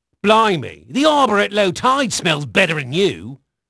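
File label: English male; Pirates Of The Caribbean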